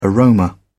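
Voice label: "aroma", British English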